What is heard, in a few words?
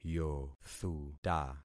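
"yothuta", concatenated syllables; Affixing experiment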